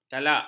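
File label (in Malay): "kalak", Malay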